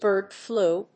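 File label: "bird flu", English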